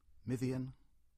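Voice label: "Mithian", English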